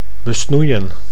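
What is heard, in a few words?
"besnoeien", Dutch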